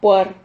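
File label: "puar", Spanish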